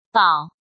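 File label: "Bǎo", Chinese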